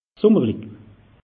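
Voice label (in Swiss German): "summerlich", Alsatian; meteo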